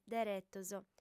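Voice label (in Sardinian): "deretos", female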